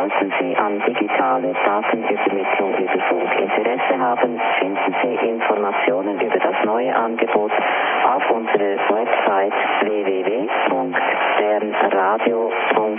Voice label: Bern Radio